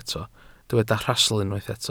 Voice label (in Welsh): "rhastl" Welsh